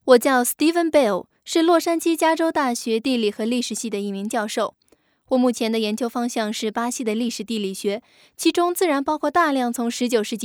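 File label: chinese female narration; voice demos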